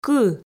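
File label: "кы", Komi, female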